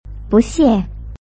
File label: "buxie", Chinese